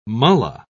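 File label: "mulla", British English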